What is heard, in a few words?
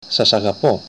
i love you, greek